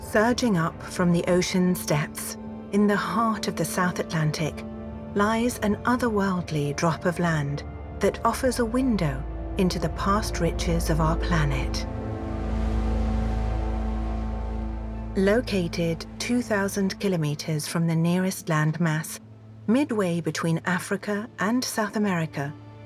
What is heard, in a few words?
documentary, English UK, female